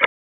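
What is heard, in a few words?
Police Dispatch, p25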